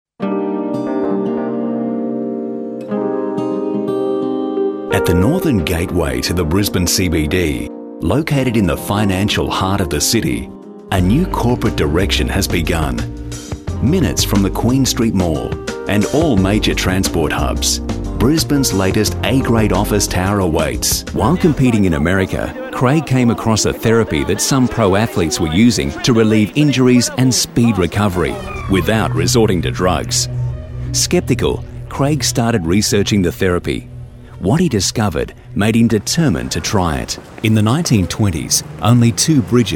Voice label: narration